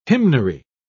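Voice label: "hymnary", British English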